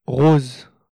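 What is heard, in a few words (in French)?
"rose", French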